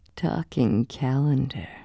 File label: sultry; Aristosoft, Inc.; icons